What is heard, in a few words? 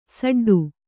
"ಸಡ್ಡು", Kannada; saḍḍu; noun